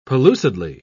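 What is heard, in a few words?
"pellucidly", English, British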